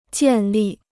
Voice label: "建立", Chinese